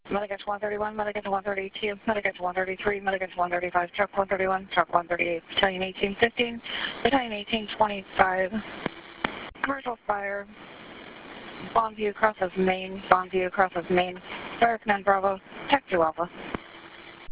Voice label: Dispatch Audio